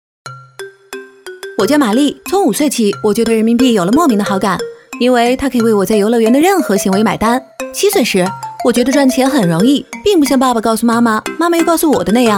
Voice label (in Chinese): 宣传片 病毒 幽默 动画风格, 女国; 我叫玛丽简幽默动画风格